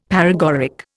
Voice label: "paregoric", Indian; Dictionary

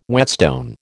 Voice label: "whetstone", American

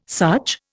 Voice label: "such", Indian

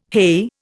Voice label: "heigh", Indian

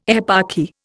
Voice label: "eparchy", Indian

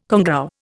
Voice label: "congou", Indian

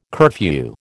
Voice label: "curfew", American

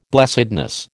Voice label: "blessedness", American

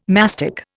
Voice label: "mastic", Indian

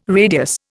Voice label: "radius", Indian

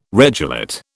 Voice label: "regelate", British

